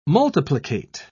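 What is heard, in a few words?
"multiplicate", English, British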